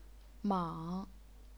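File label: "mang", third tone, chinese pinyin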